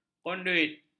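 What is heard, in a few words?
"konduit", Malay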